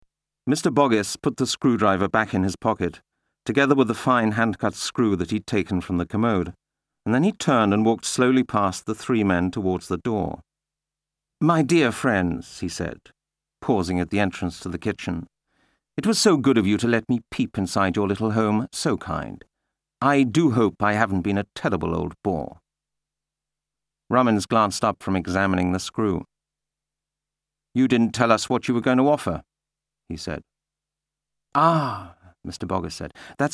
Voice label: uk englisch; LOFT VOICES

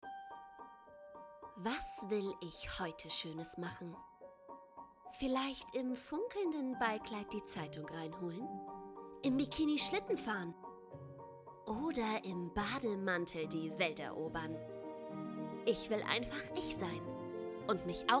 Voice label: Werbung Bodylotion

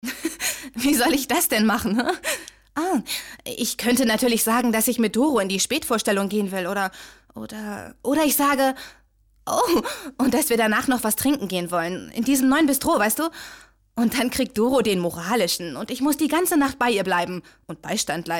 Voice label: synchron

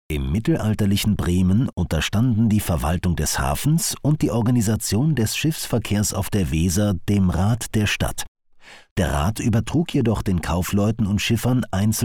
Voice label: audioguide historisch

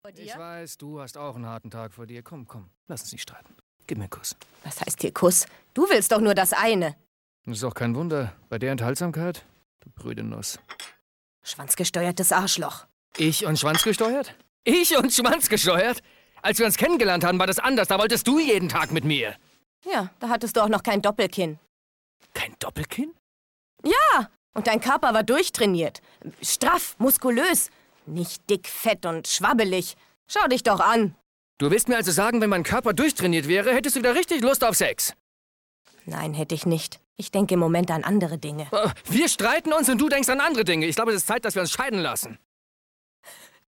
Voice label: Synchron

